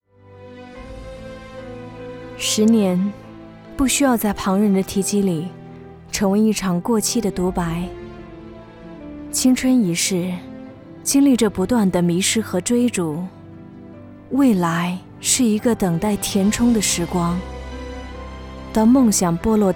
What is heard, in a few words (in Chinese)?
女 伤感; 青春梦想